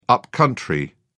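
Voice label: "up-country", English, British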